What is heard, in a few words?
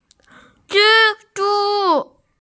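"zhi zhu", Mandarin Chinese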